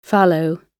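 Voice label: "fallow" English word; oxford api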